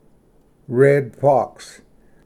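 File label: "red fox", English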